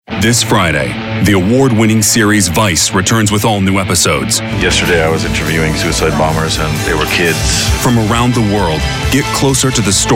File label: Promo